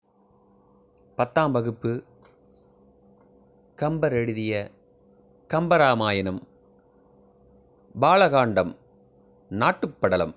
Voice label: tamil memory poem; namma kalvi